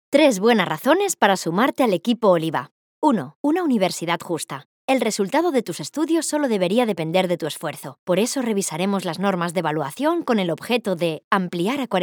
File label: Spanish political ads